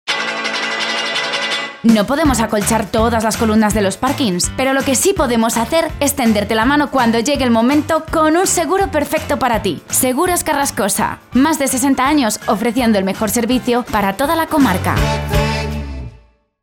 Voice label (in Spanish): MUJER